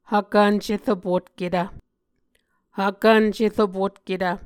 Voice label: "hvkvnceropotketv", Muscogee; Mvskoke Words with Voicing Consonants; A Dictionary of Creek/Muskogee; SIL International; Onvkv Ohhvyayices